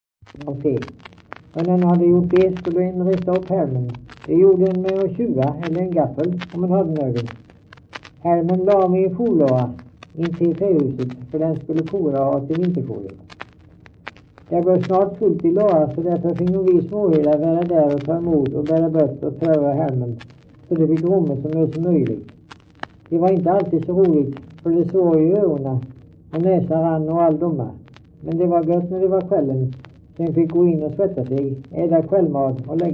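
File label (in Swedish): Stamnared Halland, dialekter; Folkminnen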